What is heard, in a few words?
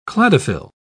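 "cladophyll", British English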